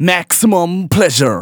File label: Phrase Male Rap; Vengeance Samples